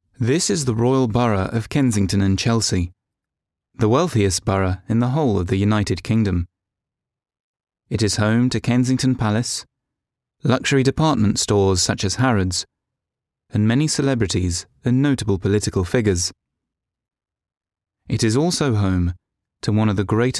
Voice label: french voice documentary